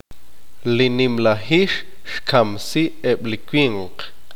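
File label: "el jaguar mató a los hombres", Q'eqchi'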